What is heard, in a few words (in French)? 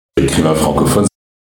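ecrivain francophone prononciation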